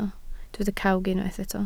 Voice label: "cawg", Welsh